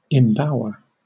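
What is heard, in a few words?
"embower", British English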